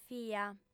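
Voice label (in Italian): "fia", lingua sarda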